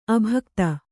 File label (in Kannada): "ಅಭಿಗೀತ", Kannada